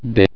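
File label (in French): "des", French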